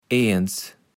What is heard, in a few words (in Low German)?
"eins", kaevels platt